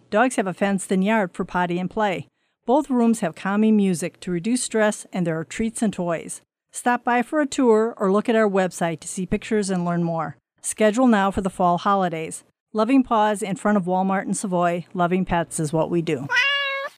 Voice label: BOARDING AD